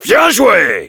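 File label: Heavy nice shot, French; Bien joué !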